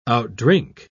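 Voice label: "outdrink", British English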